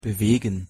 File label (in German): "bewegen", de